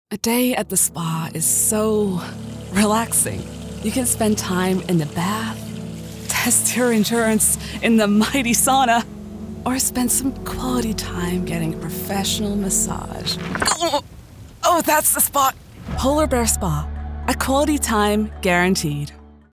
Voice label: Polar Bear Spa, meditation; en-GB